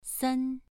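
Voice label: "sen" Mandarin Chinese, second tone, pronunciation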